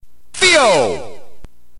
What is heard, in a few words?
narrator